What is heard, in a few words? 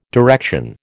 "direction", American